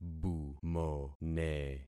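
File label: "bumone", concatenated syllables; Affixing experiment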